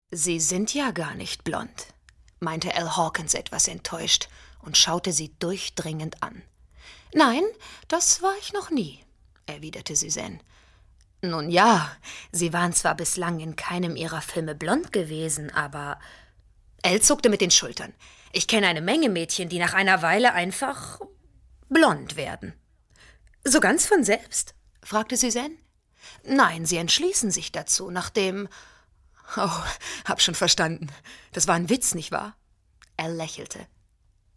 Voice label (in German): erzaehlung